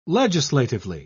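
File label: "legislatively", British English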